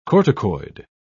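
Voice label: "corticoid", British English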